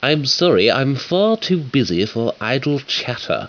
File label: idle chatter; wanted